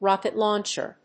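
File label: "rocket-launcher", English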